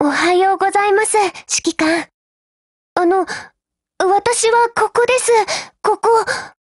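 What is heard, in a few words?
HELLO, Japanese; GF Alarm; TCMS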